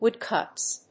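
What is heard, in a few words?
"wood-cuts", English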